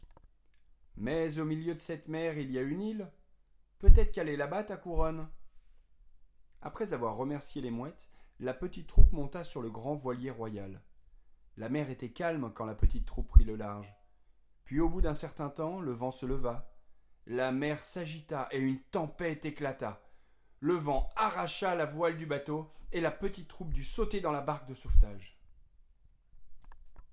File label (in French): narration